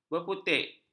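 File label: "berputik", Malay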